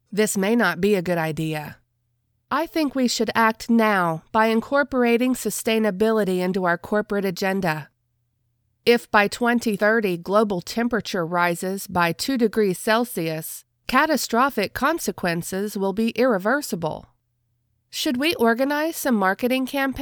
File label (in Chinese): 外籍女英 美式英语 角色自然说话